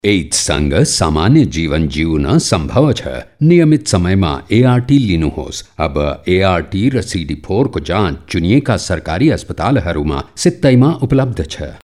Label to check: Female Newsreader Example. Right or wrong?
Wrong